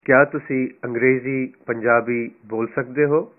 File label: "Do you speak Punjabi", punjabi